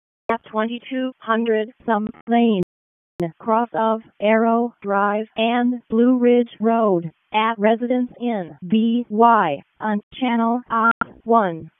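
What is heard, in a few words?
Raleigh Fire Department ALERT, p25; wake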